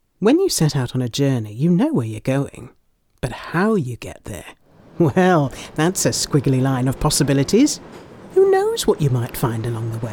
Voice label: Commercial Reel